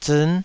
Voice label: "ziin5", Taiwanese syllable

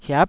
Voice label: "hiap9", Taiwanese syllable

